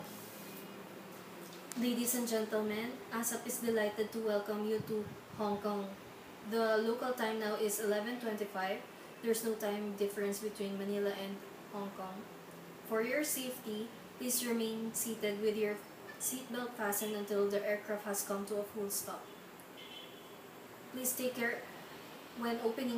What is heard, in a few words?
airplane announcements